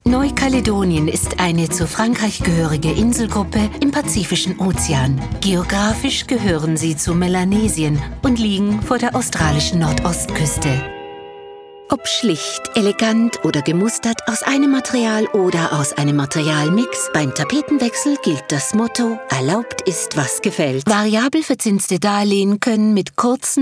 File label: german commercial